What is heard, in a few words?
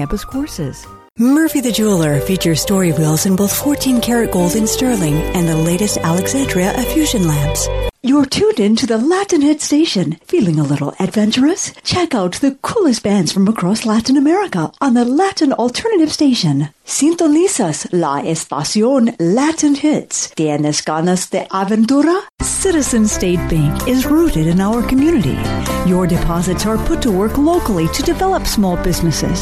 Commercial